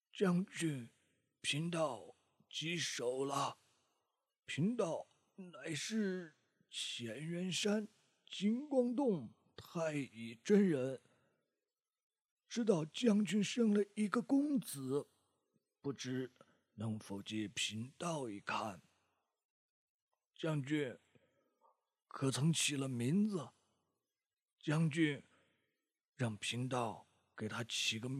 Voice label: 男播; 风神演义; 太乙真人

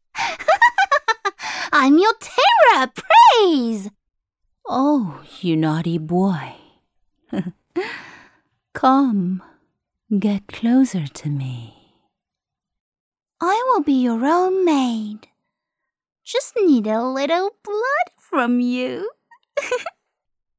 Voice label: 英游戏角色多声线

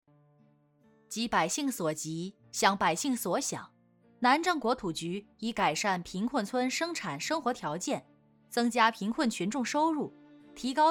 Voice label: 新闻汇报

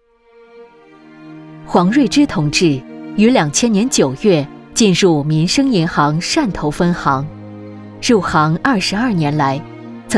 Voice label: 宣传片人物事迹; 银行先进同志

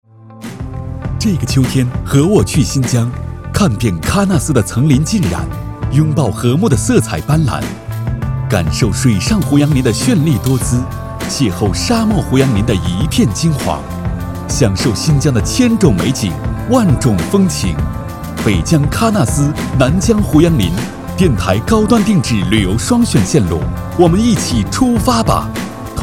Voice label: 电台广告样音

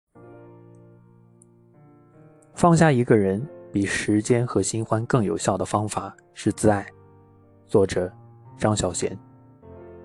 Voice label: 温暖情感, 大气浑厚 温暖磁性, 旁白/自白/独白, 青年（18-35）, 普通话, 男

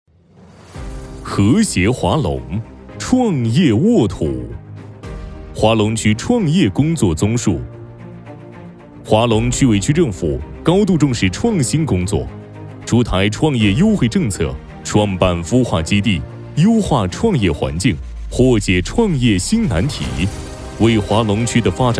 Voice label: 宣传片; 图派克

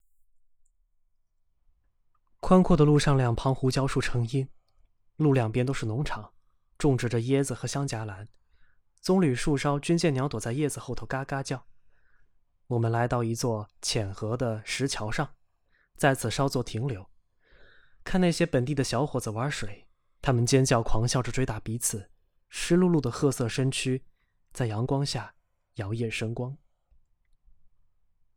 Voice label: 旁白示例

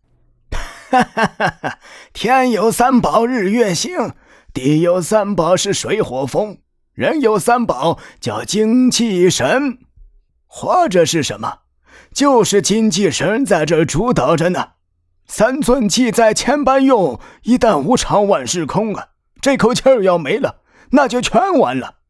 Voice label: 多岁的老人性格粗狂豪迈声音略嘶哑

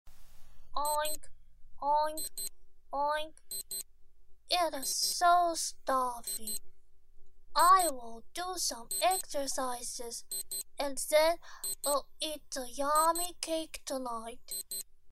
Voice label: 英文男童

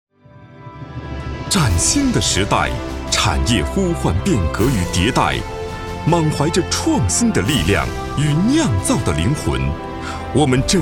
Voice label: 水泥集团大气宣传

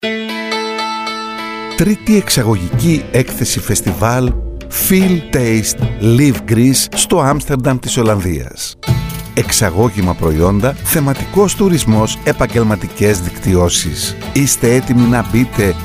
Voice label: 希腊语男深情浑厚

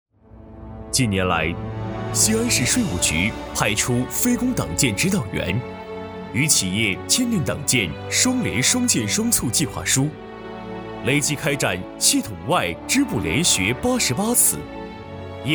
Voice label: 宣传片政企汇报

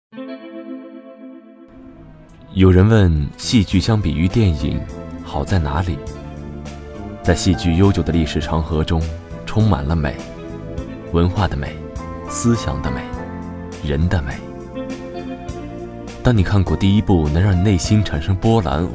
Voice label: 广告（缓慢抒情）; 《乌镇戏剧节》

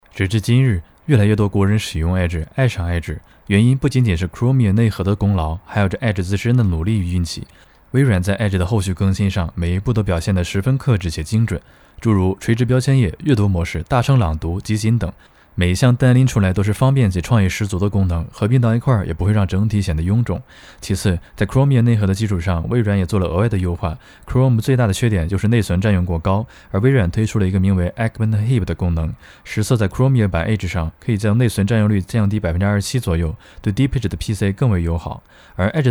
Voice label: 中文自然讲述

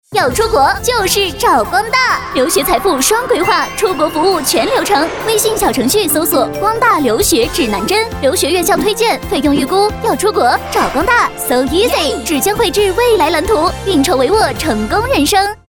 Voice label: 广告激情类

